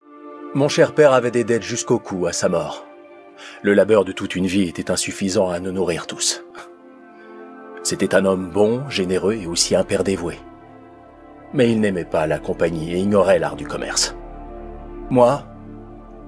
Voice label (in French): docu fiction; voix-off; Moi Albrecht Durer; Arte